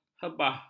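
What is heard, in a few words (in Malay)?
"hebah", Malay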